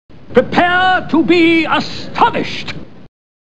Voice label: astonished; svencoop; sank